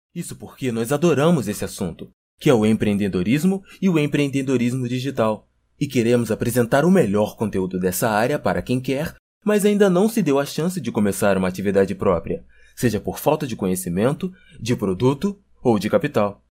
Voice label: PORTUGUESE